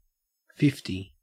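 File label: "fifty", Australian English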